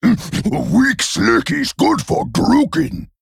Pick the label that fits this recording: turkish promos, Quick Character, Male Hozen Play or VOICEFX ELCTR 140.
Male Hozen Play